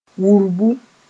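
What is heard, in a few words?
"wurbu", Mooré; rumble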